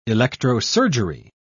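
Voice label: "electrosurgery", British English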